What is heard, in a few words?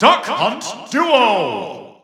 EU Announcer; Duck Hunt; SSB4-SSBU